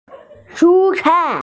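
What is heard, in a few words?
"shu1cai4", Mandarin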